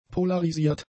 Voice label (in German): "polarisiert", German; adjectives